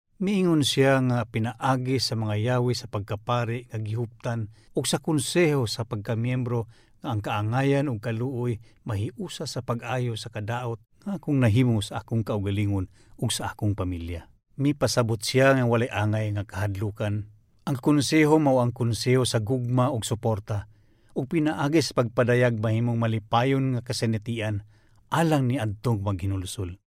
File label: Cebuano voice sample male; Manila